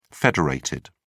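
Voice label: "federated", British English, dictionary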